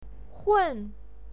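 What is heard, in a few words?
"hun4", Mandarin Chinese pinyin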